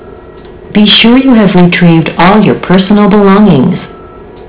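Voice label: personal, Long Beach Transit Passengers Relations announcements; Be sure you retreat all your personal belongings; Please exit through to the rear doors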